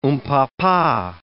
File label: "oompah-pah", English